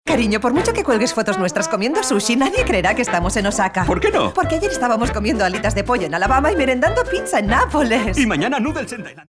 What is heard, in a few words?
female spanish comercial; ideasonora